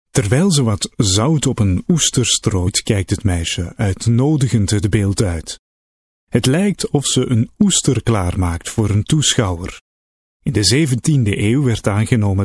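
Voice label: flemish m narration, Flemish, male; dutch; voice demos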